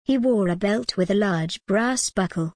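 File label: he wore a belt with a large brass buckle, British English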